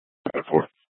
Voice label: Police South Dispatch; Regional Wrlss Cooperative (RWC); Scttsdle Police